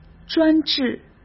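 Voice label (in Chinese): "专制", Mandarin Chinese; hsk; chinese-flashcards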